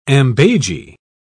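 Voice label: "ambages", English, British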